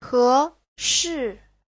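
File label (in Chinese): "he2 shi4" Chinese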